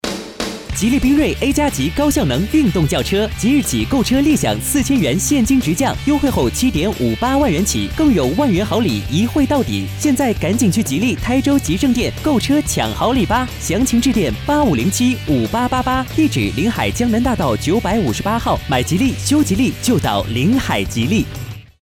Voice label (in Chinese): 电台广告; 电台广告台州吉利